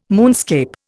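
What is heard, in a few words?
"moonscape", Indian English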